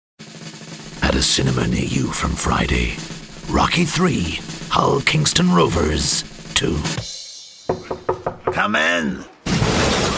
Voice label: english british movie trailers